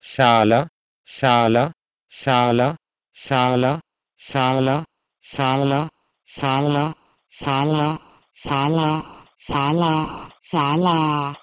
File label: Sanskrit "Saala" to Thai "saalaa"